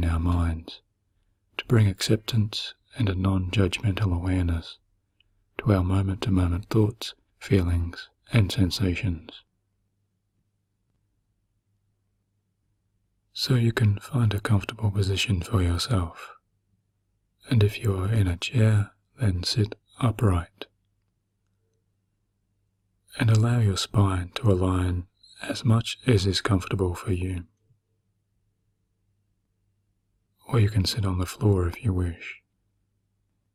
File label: Mindful Meditation, guided